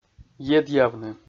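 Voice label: "едъявны", Komi, male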